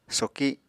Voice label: "soki", Apatani

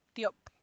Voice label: two, Santiago Matatlán Zapotec; (Spanish) dos

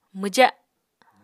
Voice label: "mwja", Apatani

